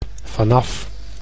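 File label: "vanaf", Dutch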